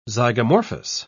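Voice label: "zygomorphous", British English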